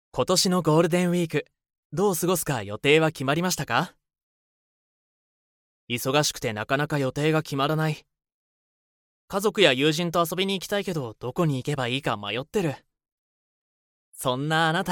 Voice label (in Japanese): NA ナチュラル